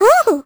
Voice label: vo confused; Crypt of the NecroDancer